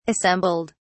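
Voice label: "assembled", British English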